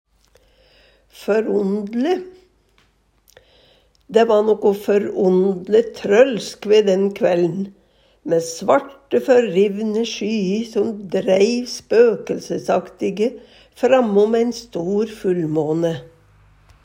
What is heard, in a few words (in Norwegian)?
"førondle", Numedalsmål